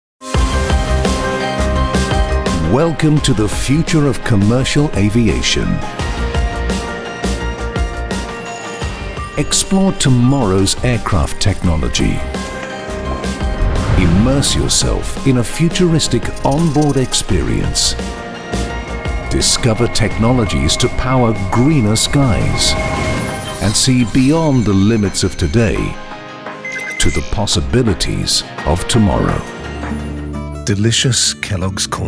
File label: Male 45 55 years Low